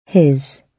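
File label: "his", British English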